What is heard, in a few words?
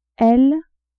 "l", french